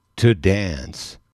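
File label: "to dance", English, male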